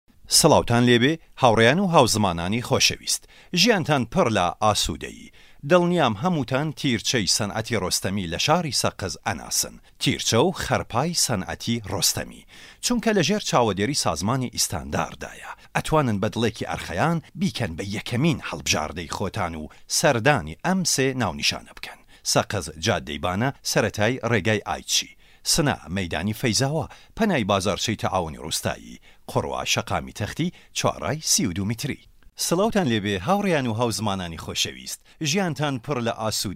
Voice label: Sorani Commercial